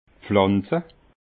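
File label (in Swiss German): "pflanza", Alsatian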